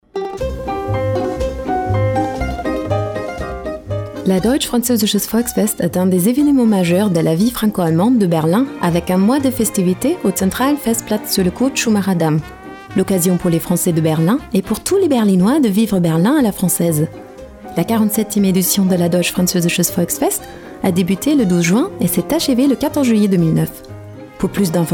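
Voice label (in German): French, Fremdtake Neutral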